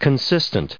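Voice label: "consistent", US English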